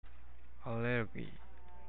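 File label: "allergy", Japanese, male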